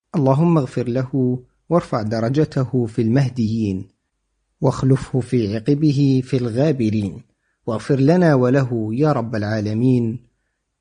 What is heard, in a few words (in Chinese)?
阿拉伯语男声